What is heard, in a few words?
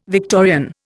"Victorian", Indian